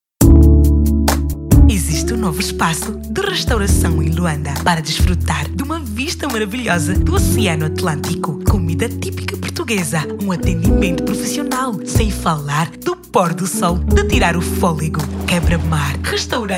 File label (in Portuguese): Voz diva